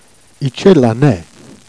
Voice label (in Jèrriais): "i' tchait d'l'âne", Jèrriais